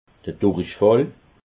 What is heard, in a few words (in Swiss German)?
"durichfall", alsace